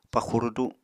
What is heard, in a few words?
"paxu rudu", Apatani